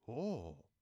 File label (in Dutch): Russisch Oh